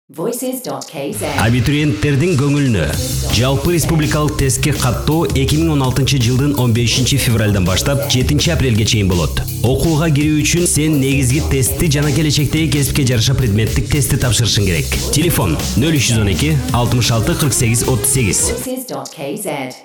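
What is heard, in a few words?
Kyrgyz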